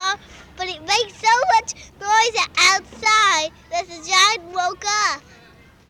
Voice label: children at school talking about various; Walden school